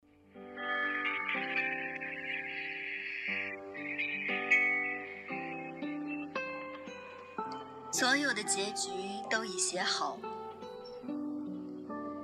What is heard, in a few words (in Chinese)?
伤感